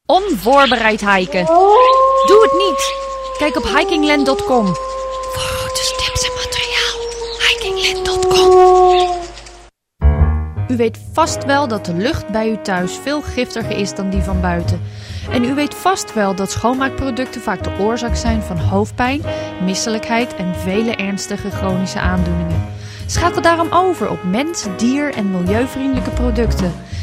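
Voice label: dutch female voice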